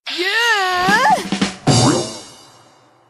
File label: female